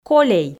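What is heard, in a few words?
"колей", Komi, female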